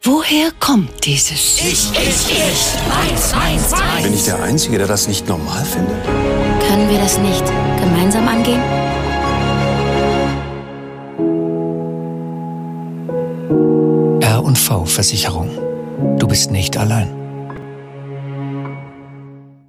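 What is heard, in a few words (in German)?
Spots OFF, Sprecher, Männer; R+V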